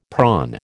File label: "prawn", American